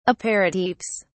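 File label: "aperitifs", British English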